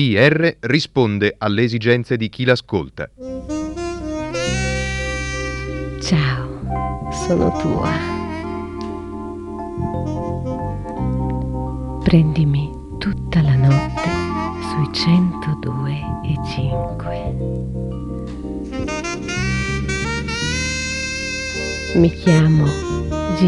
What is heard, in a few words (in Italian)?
radio jingles storici